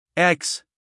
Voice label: x, us guy